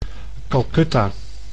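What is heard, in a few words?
"Calcutta", Dutch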